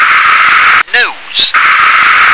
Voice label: "nose", English